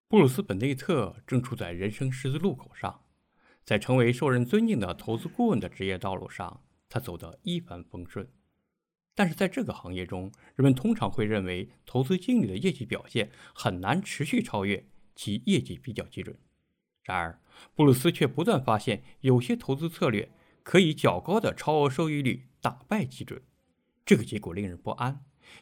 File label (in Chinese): 有声书